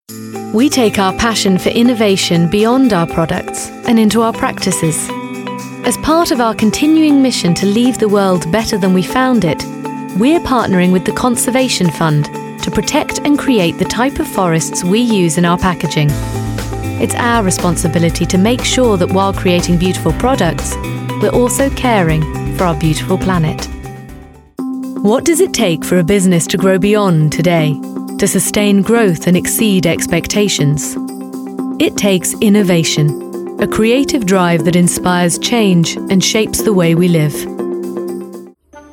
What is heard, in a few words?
Corporate